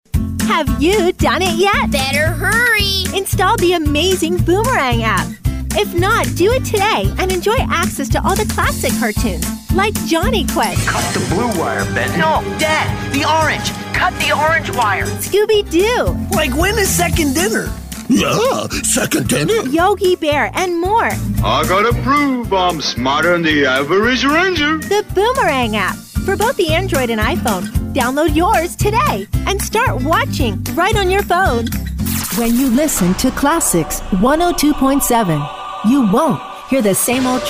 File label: imaging